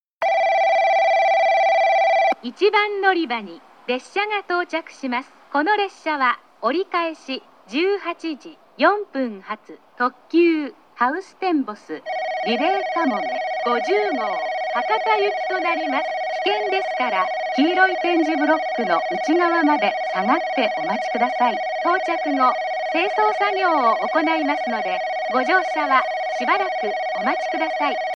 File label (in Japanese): 1番のりば接近放送; ハウステンボス; 1番のりば接近放送（折り返し・ハウステンボス・リレーかもめ50号 博多行き）